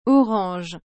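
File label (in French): "orange", French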